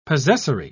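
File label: "possessory", British English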